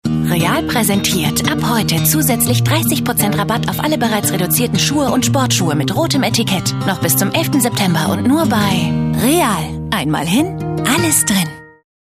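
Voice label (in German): advert, Freundlich; Real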